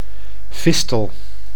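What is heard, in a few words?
"fistel", Dutch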